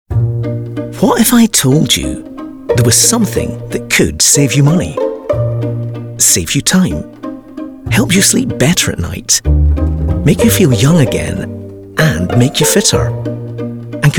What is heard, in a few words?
Commercial Montage